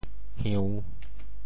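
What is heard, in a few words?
"hiu~3", Teochew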